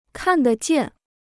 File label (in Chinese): "看得见", Chinese